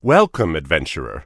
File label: early human male shopping